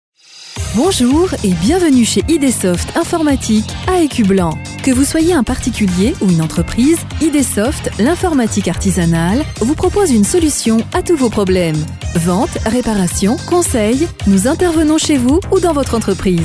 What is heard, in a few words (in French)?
Spot Pub